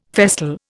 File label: "festal", Indian